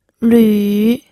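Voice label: "lü", third tone, pinyin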